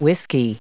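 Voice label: "whisky", US English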